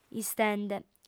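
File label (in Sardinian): "istende", Sardinian, female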